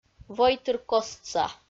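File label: "войтыркостса", Komi, female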